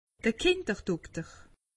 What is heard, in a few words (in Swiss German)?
"kinderdokter", alsace; medecine